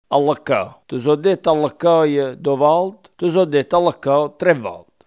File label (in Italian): "leccare", coratino; LĬCCĀRE < LĬNGĔRE; Archivio di parlato dialettale del progetto Galileo G14-126